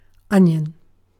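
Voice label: "onion", British English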